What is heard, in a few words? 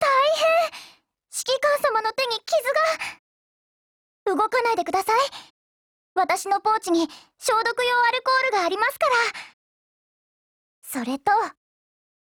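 DIALOGUE, Japanese; Danuvia43M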